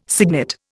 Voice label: "signet", Indian